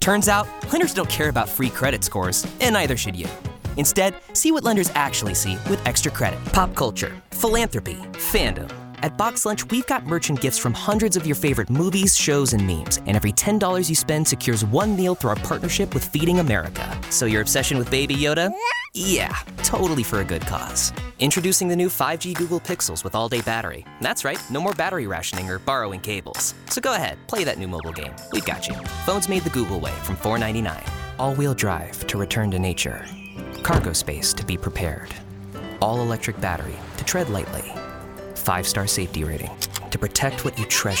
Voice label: Commercial, Male voices